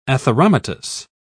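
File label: "atheromatous", English, uk British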